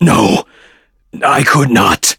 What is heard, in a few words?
touch, en; Cookie Run